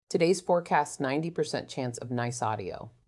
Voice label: Warm and personable, good for conversational products and hospitality-style flows.; warmexpressivepersonable